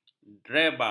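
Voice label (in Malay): "drebar", Malay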